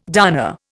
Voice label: "darner", Indian

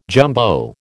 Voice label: "jumbo", American

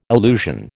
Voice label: "illusion", American

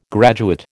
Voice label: "graduate", American

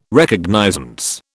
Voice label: "Recognizance", British

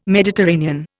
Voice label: "Mediterranean", Indian

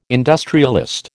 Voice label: "industrialist", American

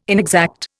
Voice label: "inexact", Indian

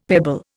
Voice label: "pebble", Indian; Dictionary

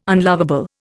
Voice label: "unlovable", Indian

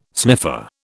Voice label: "sniffer", British

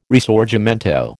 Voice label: "Risorgimento", American

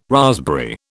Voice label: "raspberry", British

